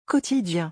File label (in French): "quotidien", French